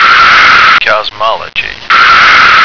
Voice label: "cosmology", American